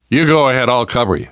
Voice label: lead on, survivor voice namvet; Left 4 Dead 2; left4dead2 dlc3